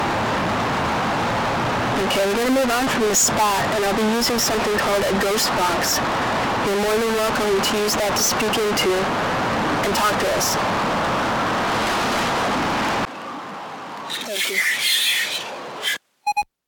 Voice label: EVP; Washburn